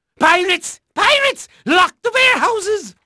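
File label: Dutch male, voice; Pirates Of The Caribbean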